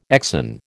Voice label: "exon", American

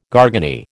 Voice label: "garganey", American

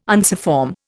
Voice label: "unciform", Indian

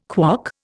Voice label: "KWOC", Indian

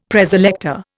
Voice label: "Preselector", Indian; Dictionary

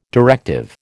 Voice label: "directive", American

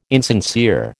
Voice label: "insincere", American

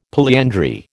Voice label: "polyandry", American

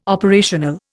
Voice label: "operational", Indian

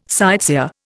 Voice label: "sightseer", Indian

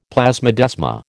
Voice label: "plasmodesma", American

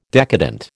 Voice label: "decadent", American

